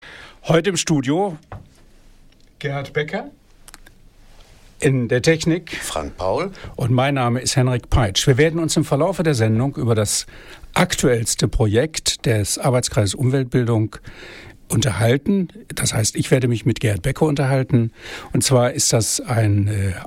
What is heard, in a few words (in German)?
ansage; os radio